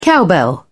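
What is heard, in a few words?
"cowbell", British English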